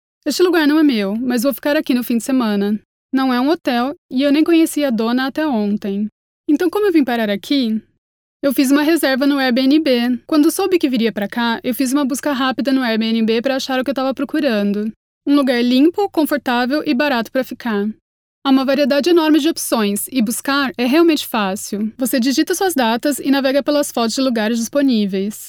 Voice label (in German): Nachvertonung Film Sprecherin Brasilianisches Portugiesisch